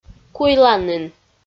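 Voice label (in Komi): "куйланін", Komi-Zyrian, female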